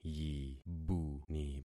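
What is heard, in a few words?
"yibuni", concatenated syllables; Affixing experiment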